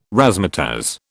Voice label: "razzmatazz", British